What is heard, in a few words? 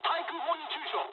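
damaged aa mood high, speech japanese; War Thunder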